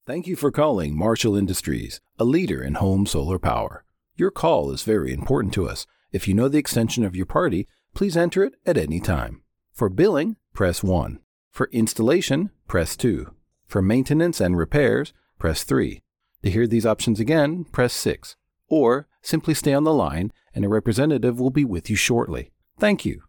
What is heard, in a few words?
Phone message sample